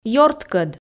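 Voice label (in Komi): "ёрткӧд", Komi, female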